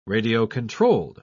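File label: "radio-controlled", British English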